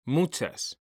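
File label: "muchas", Spanish, male; mekanta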